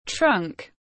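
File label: "trunk", English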